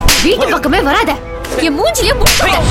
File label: Tamil Dialogue Ringtone; Veetu Pakkam